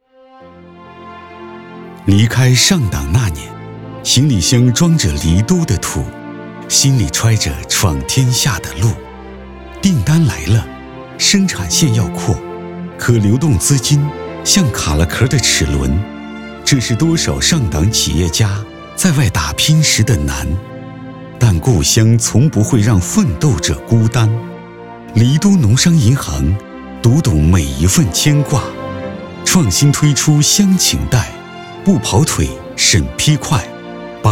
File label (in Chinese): 男 专题 偏素人抒情